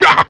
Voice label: "ya", Voice

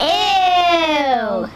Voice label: "ew", kids; ooh ahh

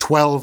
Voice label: "twelve" announcer; numbers and letters

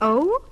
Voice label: "oh", questioning; Voice

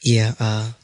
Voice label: "yeah uh", voice; ooh ahh

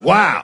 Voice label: "wow" chap; ooh ahh

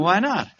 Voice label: "why not", male

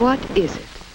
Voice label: what is it, female; questions

